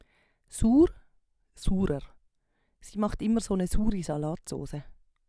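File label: "suur", Swiss German vocabulary; Wortschatz Kapitel 4; Kapitel 4 Zusatzwortschatz 1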